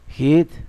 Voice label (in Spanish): pollo, Zapoteco, Magdalena Ocotlan; Animales; INALI; Haspelmath